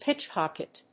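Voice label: "pitch pocket", English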